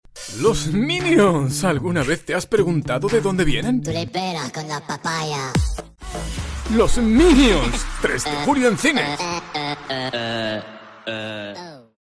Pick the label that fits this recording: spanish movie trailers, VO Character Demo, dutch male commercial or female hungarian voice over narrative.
spanish movie trailers